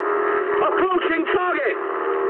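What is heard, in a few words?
English near waypoint mood high; War Thunder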